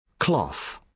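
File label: "cloth", British English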